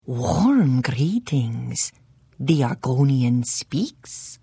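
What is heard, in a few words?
Hello, Khajiit female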